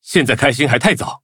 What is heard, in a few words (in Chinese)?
levelup, zh-hant; cookie run